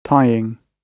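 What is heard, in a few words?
"tying", British English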